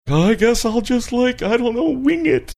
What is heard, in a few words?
saying i guess ill just like i dont know wing it funny